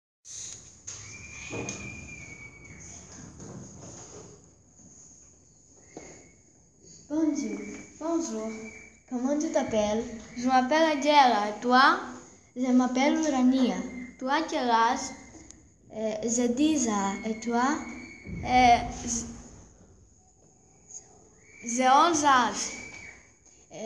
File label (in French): dialogue en classe